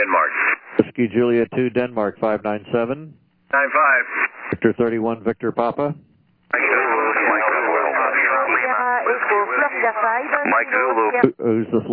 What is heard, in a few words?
CQ WW SSB USB 21MHz